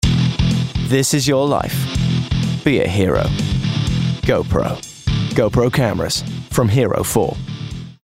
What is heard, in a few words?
COMMERCIAL; GoPro